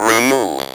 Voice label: "remove", Speak & Spell